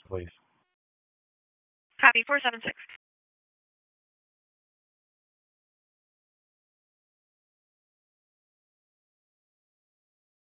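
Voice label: Raleigh Police Northeast District Dispatch, p25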